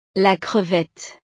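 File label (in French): "la crevette", French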